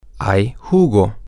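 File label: there is juice, spanish, male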